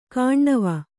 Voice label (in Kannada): "ಕಾಣ್ಣವ", Kannada